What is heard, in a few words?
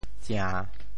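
"tsia~3", Teochew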